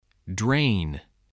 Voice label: "drain", spelling